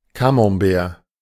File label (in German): Camembert Aussprache